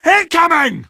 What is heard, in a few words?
"incoming", male; npc; Garry's Mod; hl2